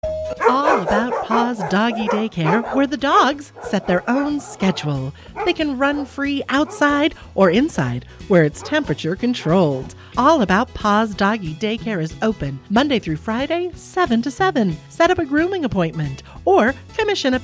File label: Spot; All About Paws Doggie Daycare; Network Listen Spot